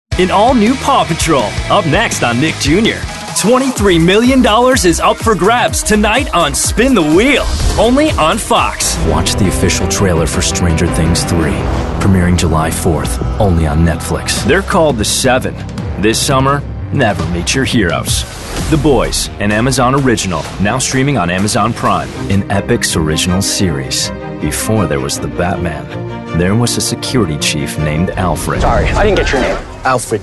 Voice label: voiceover commercial men; New York

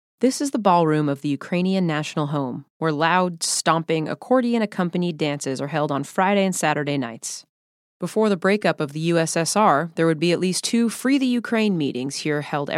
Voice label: Voiceover, Audio Books; new york

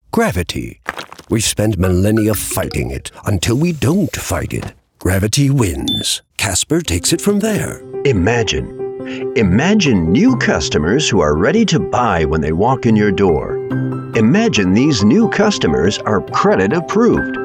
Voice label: Voiceover, Commercial, Men; New York; Commercial Demo

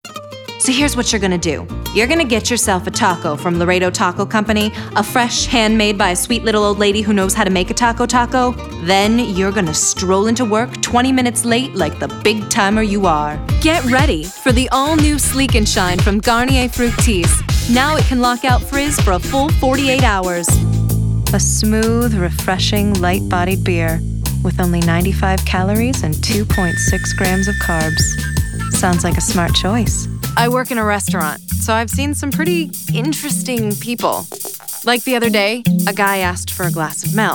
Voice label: Voiceover, Commercial, Women; Chicago

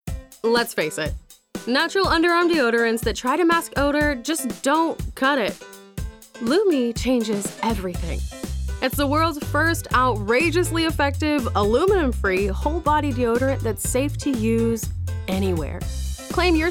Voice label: Voiceover, Commercial, Women; New York

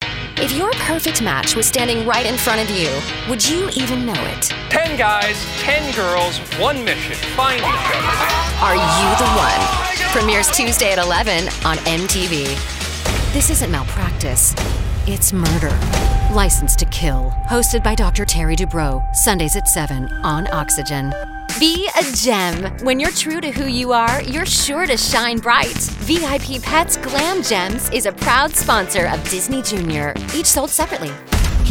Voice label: voiceover promos network cable; women; new york

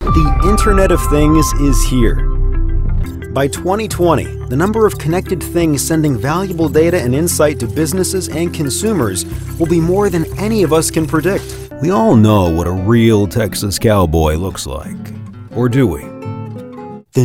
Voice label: voiceover animation; New York

